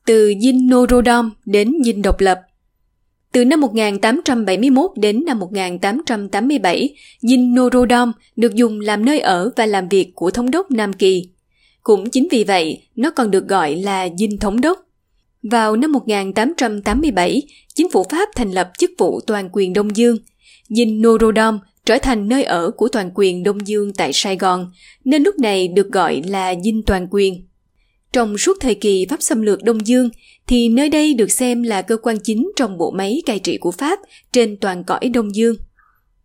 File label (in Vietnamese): Thuyet minh; Dinh Doc Lap